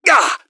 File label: pain, npcs gasmask; scmod